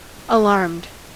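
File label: "alarmed" US English; pronunciations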